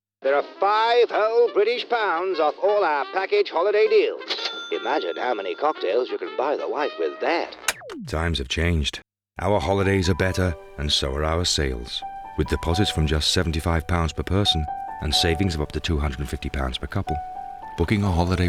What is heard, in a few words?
UK radio; THOMSON AFFORDABLE £250 PRICE VSN